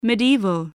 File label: "medieval", voice